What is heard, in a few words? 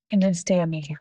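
Voice Acting es, female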